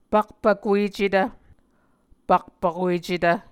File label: "pakpvkuecetv", Muscogee